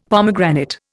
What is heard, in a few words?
"pomegranate", Indian; Dictionary